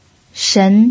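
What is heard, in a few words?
"shen", second tone, Mandarin Chinese pinyin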